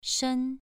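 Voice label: "shen" Mandarin Chinese, second tone, pronunciation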